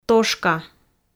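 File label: "тошка", Komi, female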